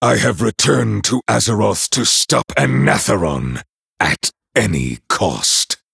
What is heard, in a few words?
Male Night Elf; Kurtrus